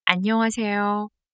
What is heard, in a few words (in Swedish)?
koreanska hej